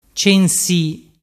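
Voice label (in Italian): "censi", dialect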